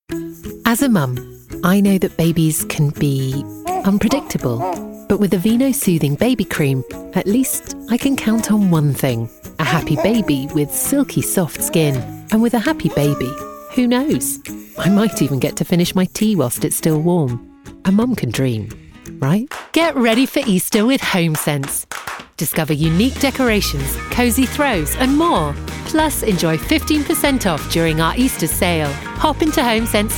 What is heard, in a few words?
english british commercial